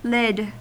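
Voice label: "lid", US English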